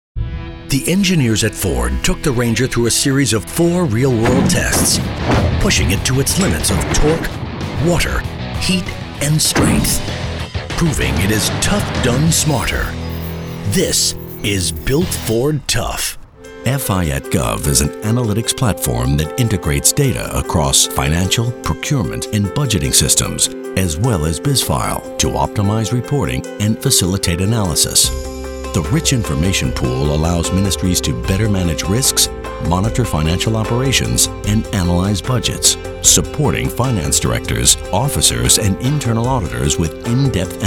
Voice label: Narration; Show Reel